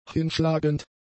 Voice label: "hinschlagend", German; adjectives

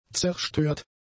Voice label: "zerstört", German; adjectives

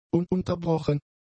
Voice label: "ununterbrochen", German; adjectives

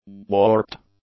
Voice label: "warped", English; adjectives

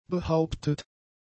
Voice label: "behauptet", German; adjectives

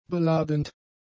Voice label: "beladend", German; adjectives

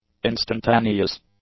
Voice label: "instantaneous", English; adjectives

